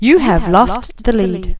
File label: lead lost, announcer